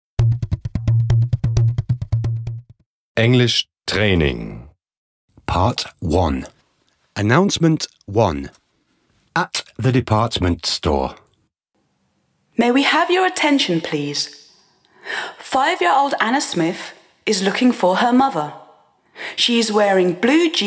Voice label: Announcements; Training